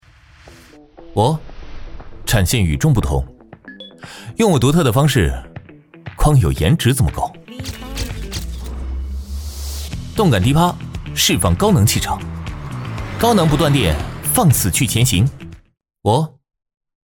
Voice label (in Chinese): 男 年轻广告